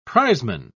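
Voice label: "prizeman", British English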